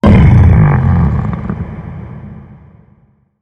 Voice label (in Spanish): Enfado; Devil Kingdom